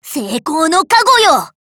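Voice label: skill, Japanese; Pekora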